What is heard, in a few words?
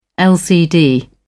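"lcd", English, British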